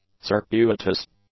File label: "circuitous", English; adjectives